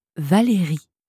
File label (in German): "valerie", franzoesische maedchennamen